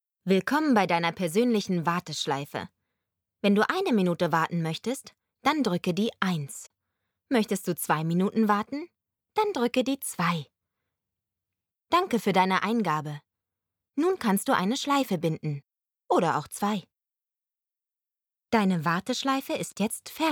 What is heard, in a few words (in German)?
Sprachprobe Warteschleife